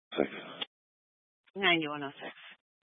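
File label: Sheriff Dispatch, scanner audio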